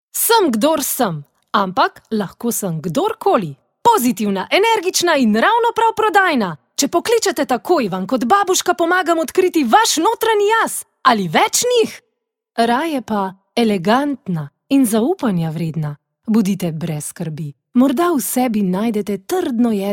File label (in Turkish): Slovence Kadin, seslendirme; kadin sesler